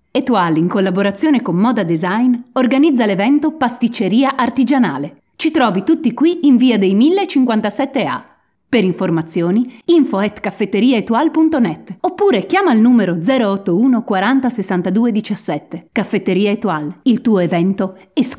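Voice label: Italian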